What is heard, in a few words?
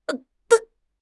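"dun" Chinese; 汉语口语新时代-初级下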